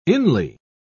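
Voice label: "inly", British English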